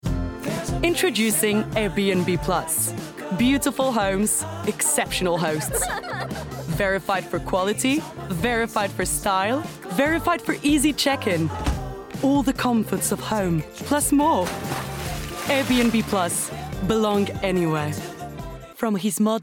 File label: English